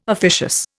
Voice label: "officious", Indian

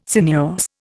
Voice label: "sinuous", Indian

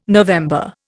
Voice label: "November", Indian

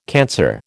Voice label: "cancer", American

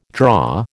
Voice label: "draw", American

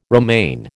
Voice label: "romaine", American

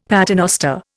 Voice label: "paternoster", Indian; Dictionary

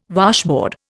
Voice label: "washboard", Indian

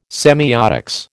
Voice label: "semiotics", American

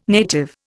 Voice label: "native", Indian

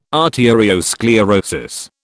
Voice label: "arteriosclerosis", British

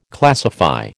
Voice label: "classify", American

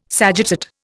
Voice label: "sagittate", Indian

